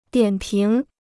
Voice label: "点评", Chinese